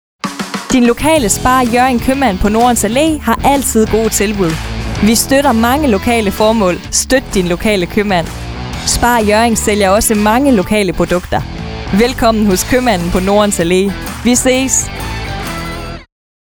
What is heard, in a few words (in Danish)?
spot dialekt; Spar Hjoerring Nordens Alle Profil; Skaga FM; KOMO